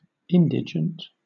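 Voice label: "indigent", British English; pronunciations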